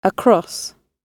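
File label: "across", British English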